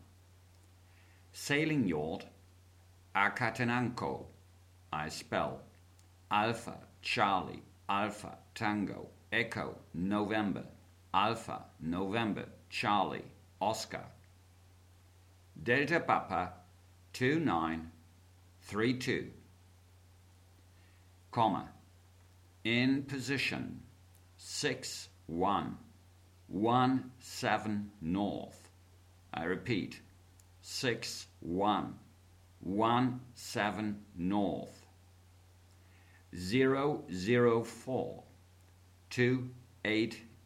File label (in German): Seefunktext